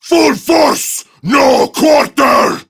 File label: Attack Prime Grineer Male; Tau; Battle Barks